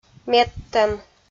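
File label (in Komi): "медтӧм", Komi, female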